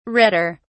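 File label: "redder", English, British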